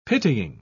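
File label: "pitying", British English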